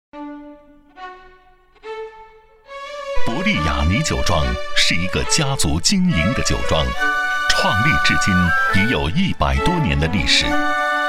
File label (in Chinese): 酒庄广告; 博立雅尼